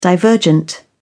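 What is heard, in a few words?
"divergent", British English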